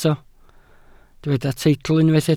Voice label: "teitl", Welsh